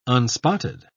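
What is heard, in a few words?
"unspotted", British English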